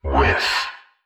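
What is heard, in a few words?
"with", synthesized voice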